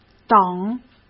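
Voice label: "等", Mandarin Chinese; hsk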